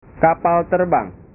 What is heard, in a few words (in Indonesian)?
"kapal terbang", bahasa